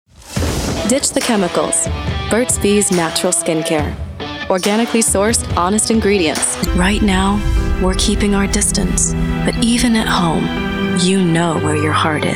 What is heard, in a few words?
commercial